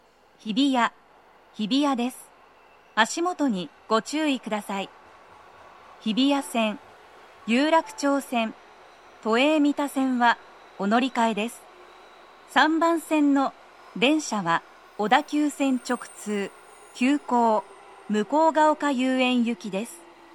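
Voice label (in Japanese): 到着放送 女声, 急行 向ヶ丘遊園行; 放送は「急行 向ヶ丘遊園行」です。; tokyometro chiyodaline hibiya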